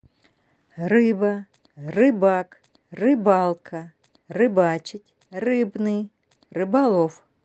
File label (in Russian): Произношение Русские слова; транскрипция [р`ыба]; Живущее в воде и дышащее жабрами позвоночное животное